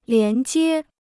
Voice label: "连接", Chinese